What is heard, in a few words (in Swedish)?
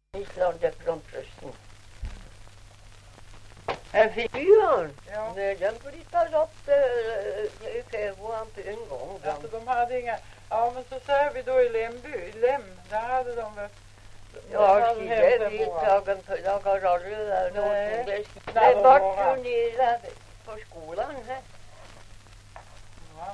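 Ovansjö Gästrikland, dialekter, svenska sagor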